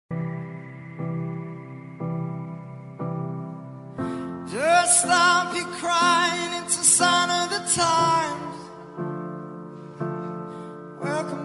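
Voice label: male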